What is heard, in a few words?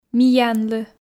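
"миянлы", Komi, female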